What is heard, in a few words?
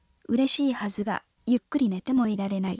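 Japanese Female, Synth; CHATR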